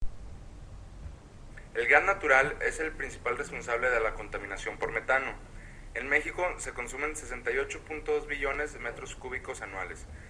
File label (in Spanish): narracion en espanol; mix energetico